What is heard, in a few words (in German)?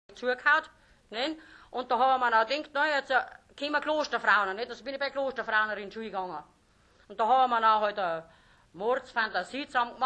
Mittelbairisch, Oberdeutsch